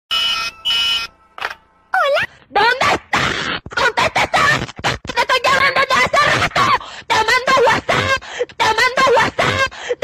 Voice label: Angry Hispanic Mom Mexican